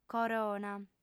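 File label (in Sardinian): "corona", female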